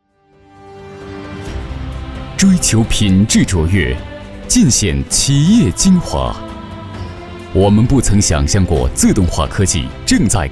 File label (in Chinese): 宣传片 企业 科技制造公司 浑厚, 男